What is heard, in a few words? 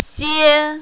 "xie1", mandarin, female